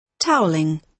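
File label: "towelling", British English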